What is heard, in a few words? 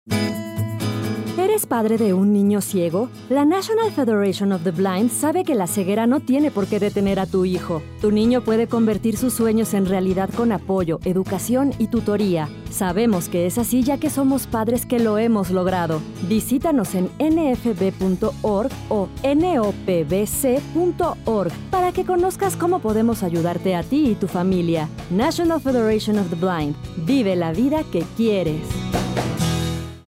spanish; nfb; parents of blind child